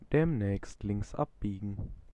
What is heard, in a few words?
Ah Left Turn, German male